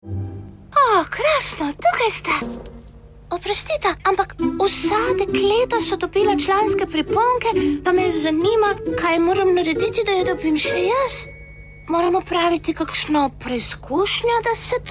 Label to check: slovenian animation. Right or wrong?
Right